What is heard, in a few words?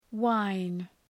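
"whine", English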